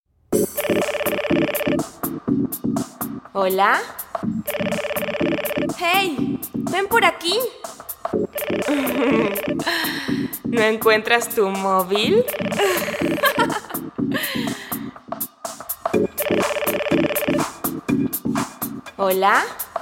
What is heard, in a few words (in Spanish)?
Voz Sensual